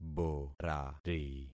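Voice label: "borari", concatenated syllables; Affixing experiment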